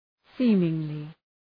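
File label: "seemingly", English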